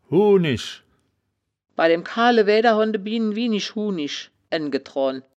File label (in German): "huunisch", wittlich; das wittlicher woerterbuch; woerterbuch vertonung